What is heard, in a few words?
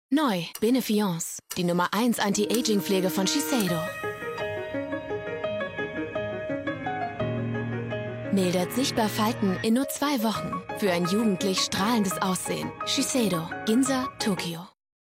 TVC, Commercial (Werbung), German; Shiseido Benefiance